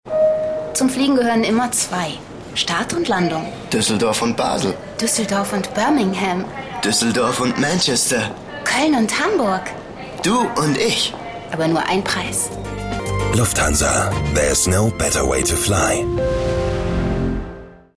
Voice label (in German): Funkspot; Lufthansa